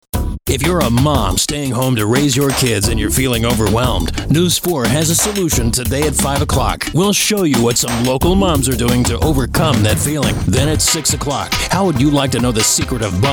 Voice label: promo, male voice talent